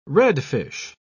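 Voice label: "redfish", English, British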